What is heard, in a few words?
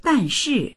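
"dan4shi4", Chinese; 但是; dànshì; しかし、ただし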